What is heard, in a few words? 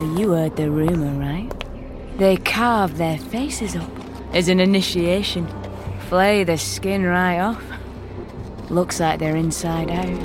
Initiation - Northern